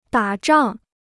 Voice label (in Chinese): "打仗", Chinese